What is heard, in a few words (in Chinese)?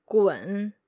"gun3", Chinese